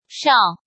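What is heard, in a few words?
"Shào", Chinese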